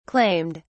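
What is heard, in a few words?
"claimed", British English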